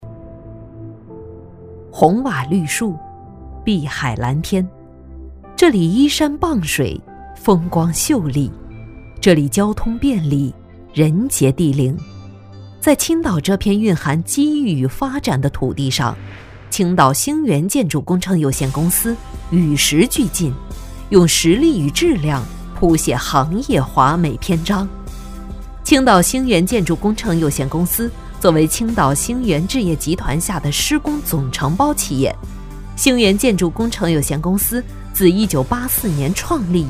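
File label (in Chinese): 企业宣传沉稳大气建筑工程有限公司; 青岛兴源建筑工程有限公司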